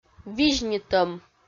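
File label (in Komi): "визьнитӧм", Komi, female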